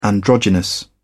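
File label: "androgynous", English, British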